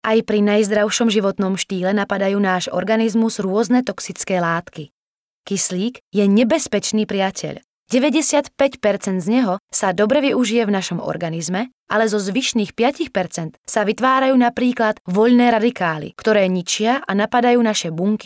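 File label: Female Slovak documentary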